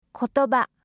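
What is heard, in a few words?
"kotoba", Japanese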